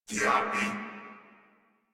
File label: Surprised Crewmen, Battle Barks; Dialog; Warframe; Corpus Crewmen; Crewman C